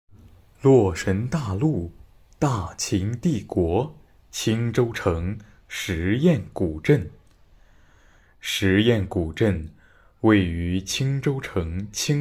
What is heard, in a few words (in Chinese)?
有声书; 洛神大陆